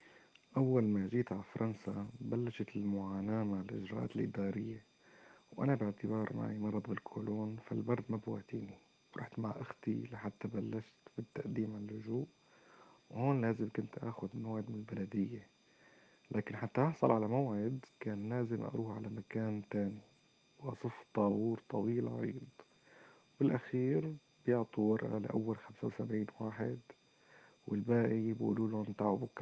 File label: North Levantine Arabic, Monologues; Alep; UFAL Speech Corpus of North Levantine Arabic 1.0